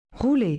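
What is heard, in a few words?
"rouler", French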